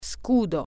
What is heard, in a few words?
shield, italian